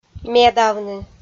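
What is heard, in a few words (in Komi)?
"медавны", Komi, female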